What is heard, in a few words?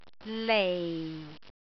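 "lei4", Cantonese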